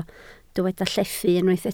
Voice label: "llethu", Welsh